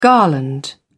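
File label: "garland", British English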